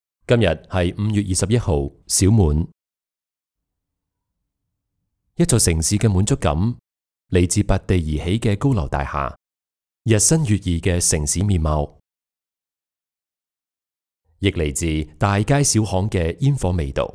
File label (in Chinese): 粤语; 小满